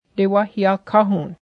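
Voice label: tomatoes, Cayuga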